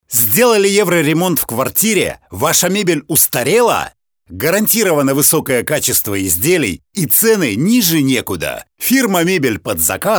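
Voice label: Russian male Active, vo examples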